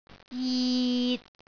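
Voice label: "jit6", Cantonese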